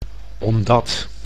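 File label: "omdat", Dutch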